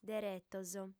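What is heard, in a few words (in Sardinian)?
"deretos", female; Dados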